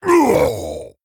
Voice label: Male Tauren Death